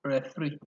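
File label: "referee", Nigerian Pidgin, male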